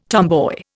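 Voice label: "tombstone", Indian; Dictionary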